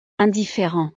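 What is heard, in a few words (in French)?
"indifferent", French; estados animo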